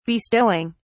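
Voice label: "bestowing", British English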